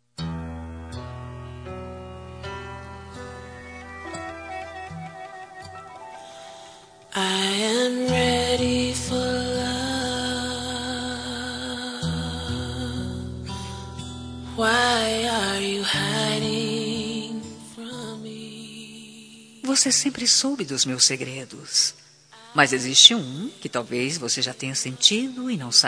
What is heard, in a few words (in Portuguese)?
ROM VOCE E MINHA LUZ FEM